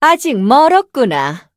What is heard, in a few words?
levelup ko; phoenix pepper